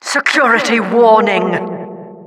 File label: Announcer security warning